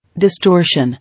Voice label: "Distortion", English